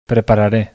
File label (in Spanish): acento lexical prepararee bizkaia; spansk; fonetica; biskaia